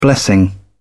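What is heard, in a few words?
"blessing", British English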